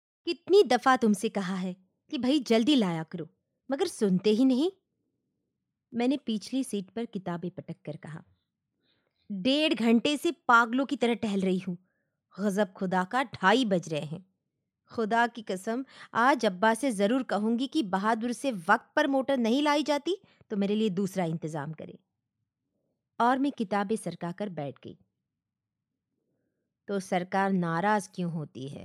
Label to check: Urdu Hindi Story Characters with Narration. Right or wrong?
Right